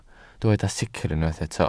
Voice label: "sicr", Welsh